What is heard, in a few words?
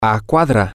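6 blocks away, Spanish, male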